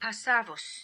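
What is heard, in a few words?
"Passavus", pronunciations; Fourth Way; Beelzebub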